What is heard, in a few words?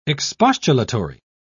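"expostulatory", British English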